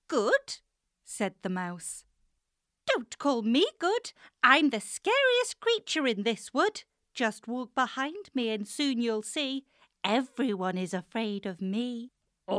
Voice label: english british audiobooks

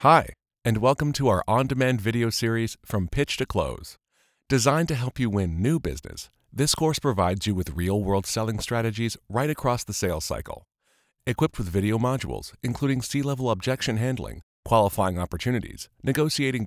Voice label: english canadian e-learning

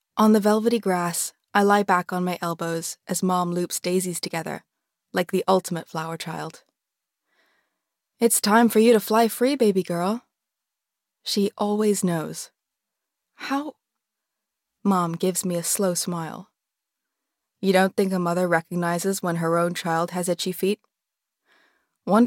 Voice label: english american audiobooks

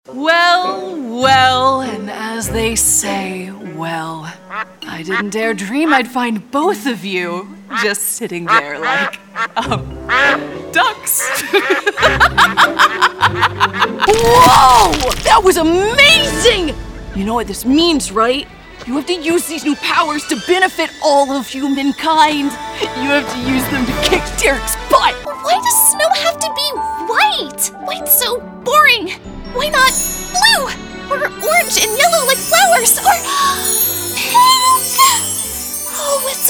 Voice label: english american animation

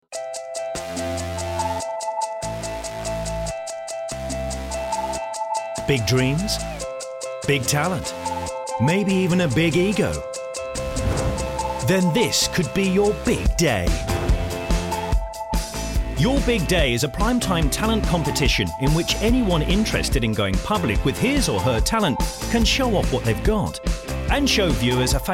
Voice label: english british promos

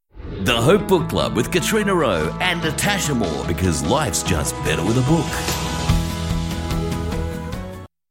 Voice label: English Australian podcasts

